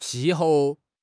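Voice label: "qi4 hou4", Mandarin Chinese; HSK4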